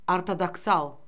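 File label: "ortodoksal", Azerbaijani; Orfoqrafiya Lüğəti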